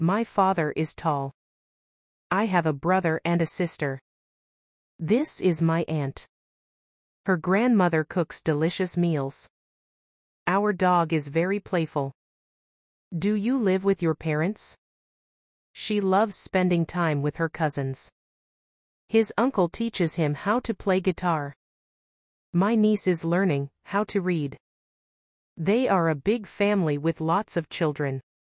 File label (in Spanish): gramatica clase ingles; Who came to visit Lucy last weekend?